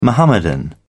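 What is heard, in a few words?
"mohammedan", British English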